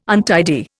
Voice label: "untidy", Indian